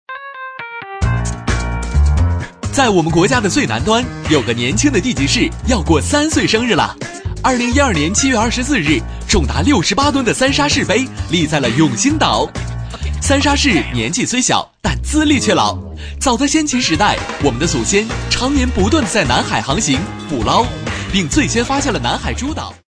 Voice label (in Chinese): 男; 飞碟说; 三沙市